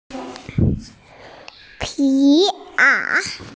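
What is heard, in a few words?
"leather coat", Mandarin Chinese, female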